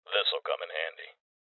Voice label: Voices Loot Collect Male; rebel cops 2019